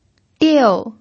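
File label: "diu", Mandarin Chinese, fourth tone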